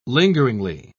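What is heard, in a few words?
"lingeringly", British English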